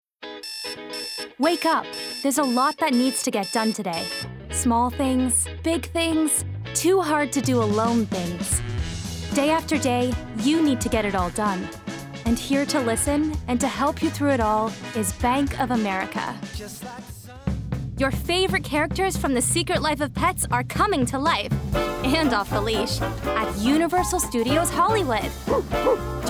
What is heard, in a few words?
Commercial